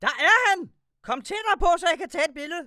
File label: monster spot; Voices; Quarry